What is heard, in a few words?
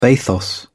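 "bathos", British English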